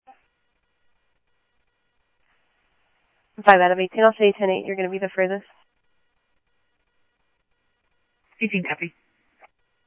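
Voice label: police radio scanner traffic, Mesa Simulcast; MESA PD 1 Fiesta; MesaPoliceDep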